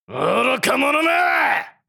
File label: You fools anime villain character, japanese voice over